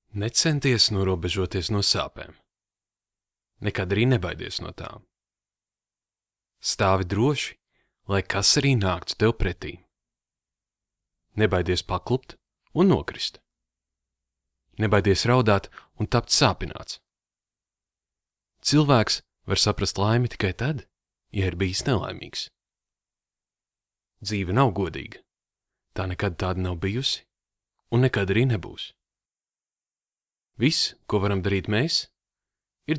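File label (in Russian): голоса, Latvian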